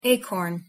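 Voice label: "acorn" pronunciation English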